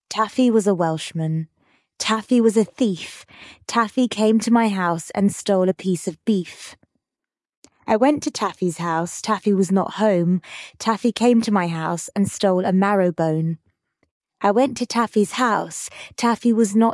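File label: Taffy was a Welshman, female British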